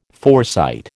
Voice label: "foresight", American

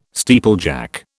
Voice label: "steeplejack", British

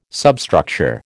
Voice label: "substructure", American

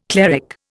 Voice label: "cleric", Indian

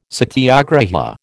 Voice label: "satyagraha", American

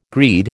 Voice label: "greed", American

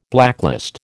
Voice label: "blacklist", American

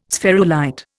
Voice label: "spherulite", Indian

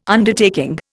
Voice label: "Undertaking", Indian

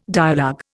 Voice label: "dialogue", Indian

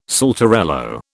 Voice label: "saltarello", British

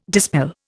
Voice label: "dispel", Indian

